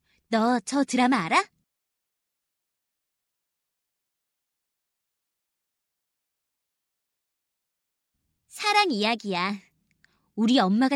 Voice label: english